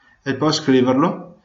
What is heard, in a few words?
write, Venetian